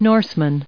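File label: "norseman", us English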